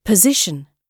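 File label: "position", British English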